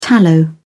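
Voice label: "tallow", British English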